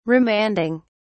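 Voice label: "remanding", British English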